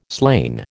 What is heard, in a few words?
"slain", American